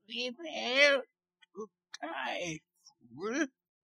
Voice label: Fool old